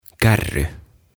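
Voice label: "Kärry", Finnish; Cart; OTAVA KONSERNI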